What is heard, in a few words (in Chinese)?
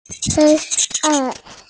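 "hei1an4", Mandarin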